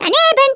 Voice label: MISSED, Speech, German; Worms Armageddon